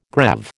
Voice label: "greave", American